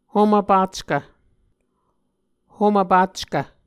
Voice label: "homv packv", Muscogee

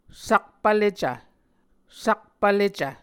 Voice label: "sakpv lecv", Muscogee

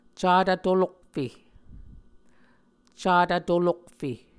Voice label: "catv tolokfe", Muscogee

